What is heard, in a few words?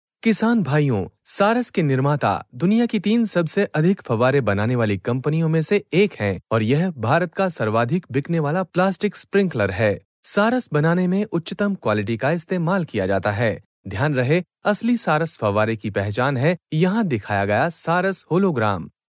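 Hindi, male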